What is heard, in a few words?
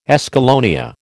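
"escallonia", American English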